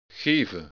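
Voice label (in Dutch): "geven", Dutch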